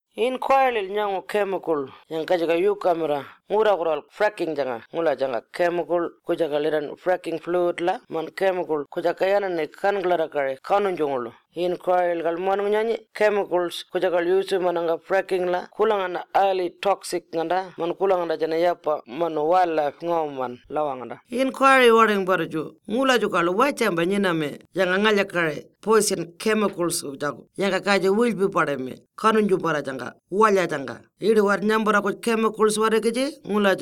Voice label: Warlpiri; Fracking Draft Final Report; Public Health